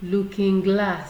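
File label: "luking glass", Nigerian Pidgin, female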